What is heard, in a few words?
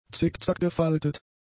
"zickzackgefaltet", German; adjectives